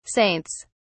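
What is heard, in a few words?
"saints", British English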